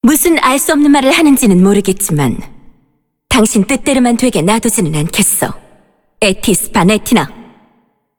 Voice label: cinematic voice; lineage2

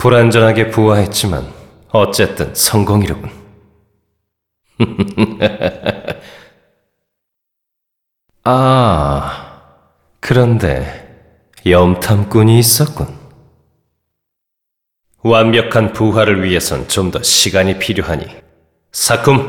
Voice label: cinematic voice; lineage2

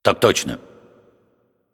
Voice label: cinematic voice, ru; lineage2

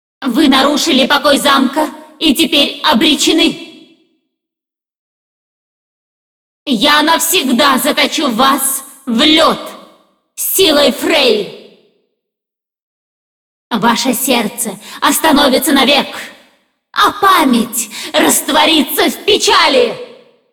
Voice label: cinematic voice, ru; lineage2

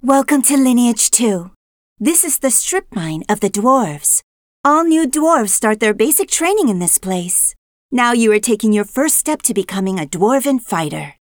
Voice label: tutorial voice; lineage2

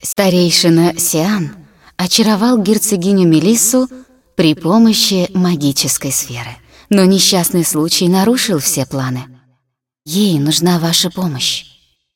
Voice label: cinematic voice, ru; lineage2

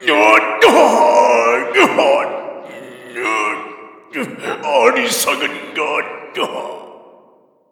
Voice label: cinematic voice; lineage2

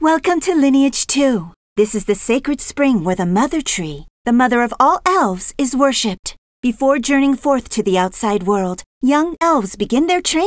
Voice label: tutorial voice, pl; lineage2

